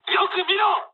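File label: player friendly fire mood high, speech japanese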